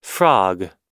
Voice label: "frog", pronunciation